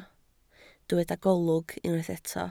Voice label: "golwg", Welsh

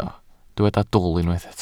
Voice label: "dwl", Welsh

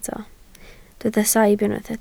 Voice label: "saib" Welsh

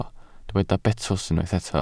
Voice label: "betws", Welsh

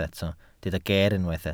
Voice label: "geer", Welsh

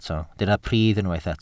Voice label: "pridd" Welsh

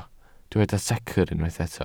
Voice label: "secr" Welsh

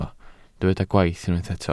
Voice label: "gwaith" Welsh

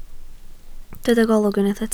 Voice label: "golwg", Welsh

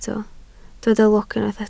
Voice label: "lwc", Welsh

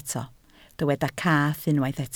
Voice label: "cath", Welsh

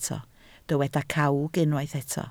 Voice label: "cawg", Welsh

